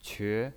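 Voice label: "que", Mandarin Chinese, second tone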